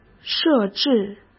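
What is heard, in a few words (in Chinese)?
"设置", Mandarin; chinese-flashcards; hsk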